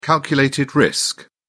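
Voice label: "calculated risk", English, British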